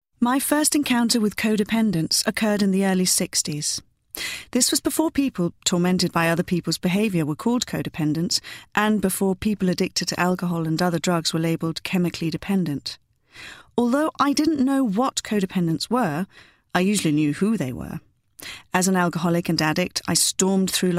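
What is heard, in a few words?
UK English, Corporate; GoLocalise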